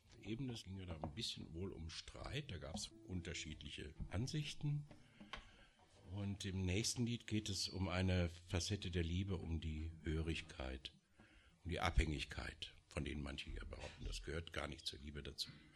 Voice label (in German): ansage; abgesang